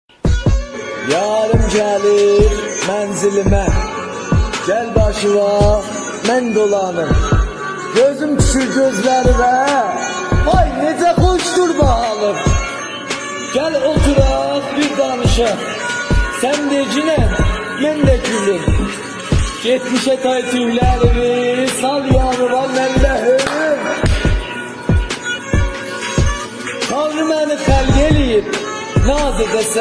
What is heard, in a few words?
دکلمه ترکی